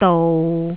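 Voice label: "dou6", Cantonese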